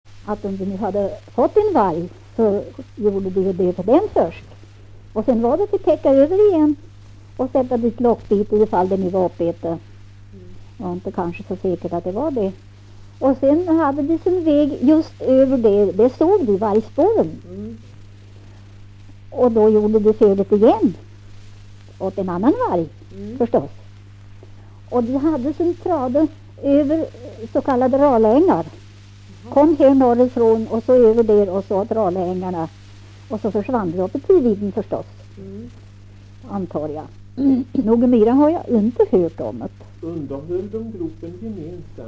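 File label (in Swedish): Kumla Närke, dialekter; Folkminnen; Svenska sagor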